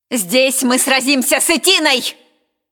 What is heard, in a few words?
cinematic voice, ru; lineage2